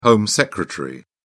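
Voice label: "home secretary", British English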